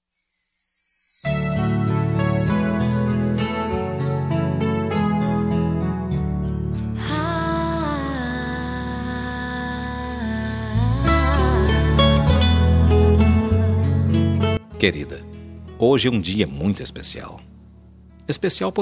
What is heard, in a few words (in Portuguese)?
ANIV EVANG PARA ESPOSA, telemensagem; telemensagem dia das maes romantica evangelica